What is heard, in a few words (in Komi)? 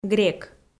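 "грек", Komi, female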